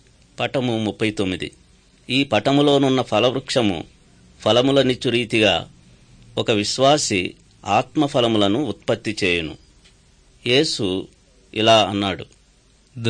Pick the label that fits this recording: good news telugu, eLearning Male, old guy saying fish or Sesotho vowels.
good news telugu